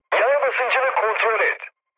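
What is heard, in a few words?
Turkish damaged oil, mood med, speech; War Thunder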